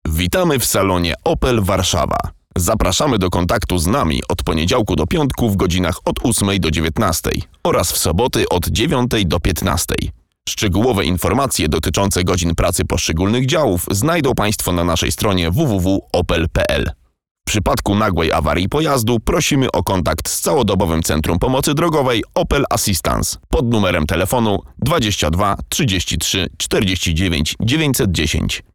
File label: polish ivr